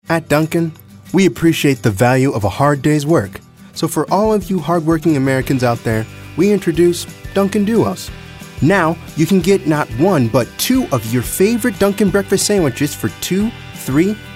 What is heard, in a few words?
folksy